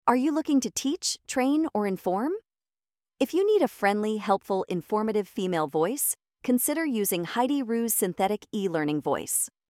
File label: E Learning Female AI Generated Voice